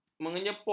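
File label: "menyepuh", Malay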